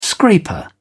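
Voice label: "scraper", British English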